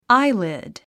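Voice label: "eyelid", English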